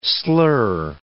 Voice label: "slur", English

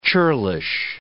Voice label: "churlish", English

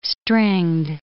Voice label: "stringed", English

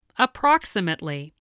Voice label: "approximately", English

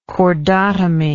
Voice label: "chordotomy", English

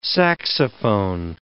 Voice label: "saxophone", English

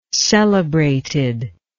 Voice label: "celebrated", English

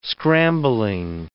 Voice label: "scrambling", English

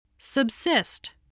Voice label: "subsist", spelling

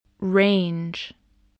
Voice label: "range", english

